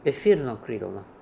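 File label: Etherokrilno Russian, Beelzebub pronounciations; Fourth Way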